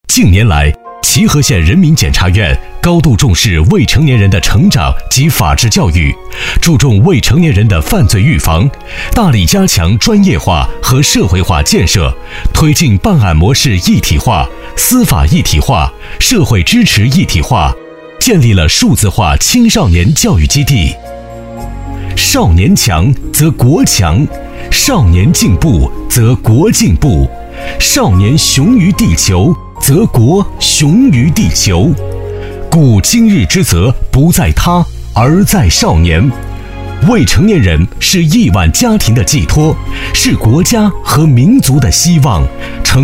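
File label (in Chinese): 男S347 国语 男声 专题片-政府部门专题片-恢宏大气 大气浑厚磁性 沉稳; 齐河县检察院-政府部门专题片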